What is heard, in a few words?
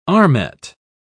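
"armet", British English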